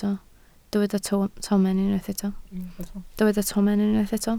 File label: "tomen", Welsh